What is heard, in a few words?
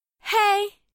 bonjour, voix; fr